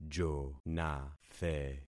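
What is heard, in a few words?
"jonathe", concatenated syllables; Affixing experiment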